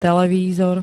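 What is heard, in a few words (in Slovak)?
"televízor", Slovak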